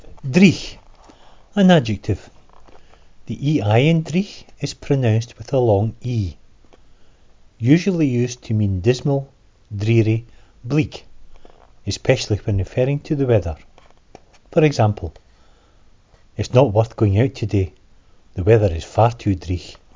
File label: dreich, schottisch; schottisch-fuer-anfaenger